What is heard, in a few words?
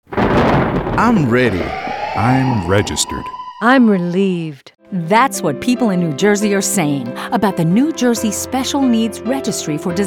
radio ad